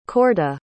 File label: "corda", pronounce